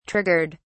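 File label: "triggered", British English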